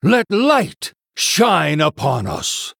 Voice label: Male Human Picked